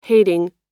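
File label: "hating", American English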